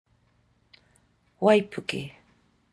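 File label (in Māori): "waipuke", Māori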